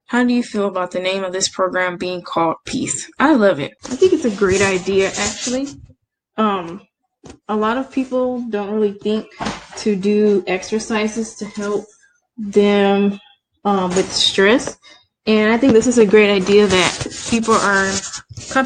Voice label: Female African American Black